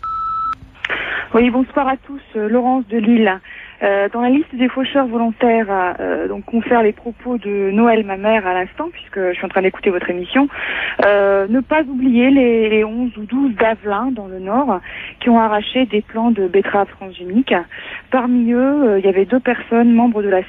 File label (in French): repondeur; Faucheurs volontaires